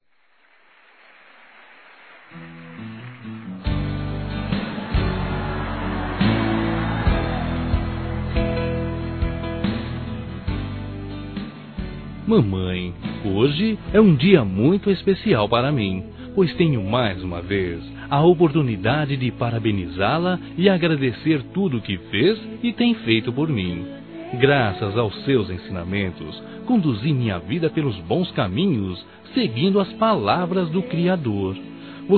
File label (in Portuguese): Geral Masculino; Dia das Mães; Telemensagens 2017